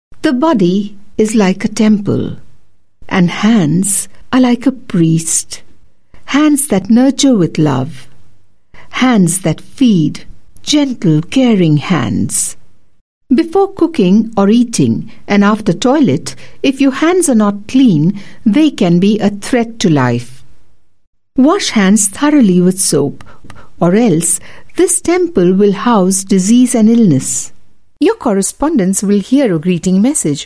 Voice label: English, India Female